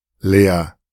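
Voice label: "Leer", German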